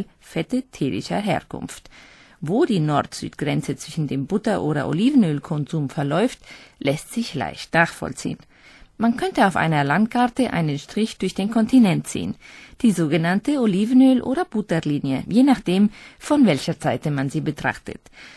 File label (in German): akzent, Synchron Sprecherin, Spanisch (Castilianisch) + Deutsch; Olivenöllinie; ergo-film